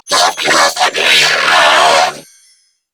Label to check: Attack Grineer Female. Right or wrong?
Right